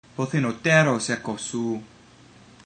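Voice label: I miss you, ancient Greek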